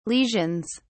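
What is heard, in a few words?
"lesions", British English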